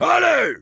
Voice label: Heavy cart moving forward offense, fr